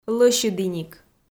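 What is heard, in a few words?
"лӧсьыдіник", Komi, female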